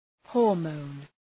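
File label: "hormone", English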